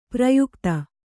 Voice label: "ಪ್ರಯುಕ್ತ", Kannada